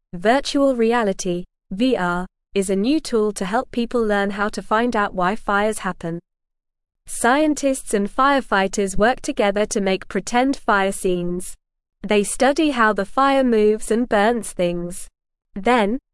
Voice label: English Newsroom Beginner NORMAL Reading; Learning About Fires with Virtual Reality Fun